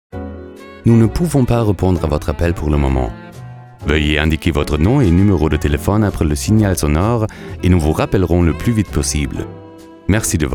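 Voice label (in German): Anrufbeantworter französisch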